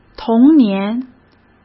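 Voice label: "同年", Mandarin Chinese; hsk; chinese-flashcards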